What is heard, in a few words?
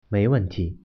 thanks reply, Mandarin